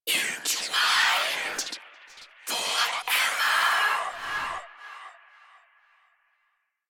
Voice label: Whisper; Protea Quest; Protea Whispers; Protea Specter

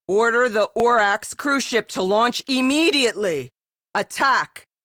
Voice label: Captain Anchor Activate, Captain Female; Rail Jack

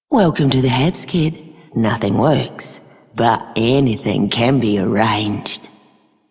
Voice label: female scum; Female Two; en; Dialog; Warframe; Solaris Venus

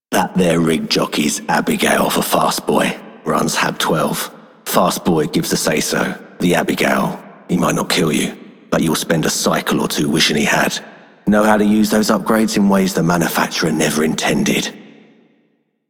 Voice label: Male Scum, Male One, en; Dialog; Warframe; Solaris Venus

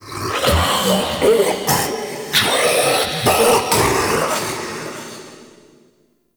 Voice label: Mockery Male Vocal Enemy Spotted Phrase; Characters; Vocals; Mockeries

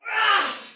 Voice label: Attack Soldier Female, Battle Barks; Dialog; Sinecure

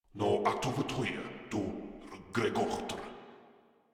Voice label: Patrol Grineer Male, Barks, Battle

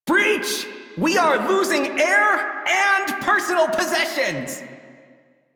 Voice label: Crew See Breach, Male, en; Dialog; Warframe; Railjack Crew; Perrin Sequence

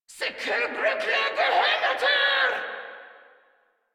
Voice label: Attack Grineer Female; Warframe

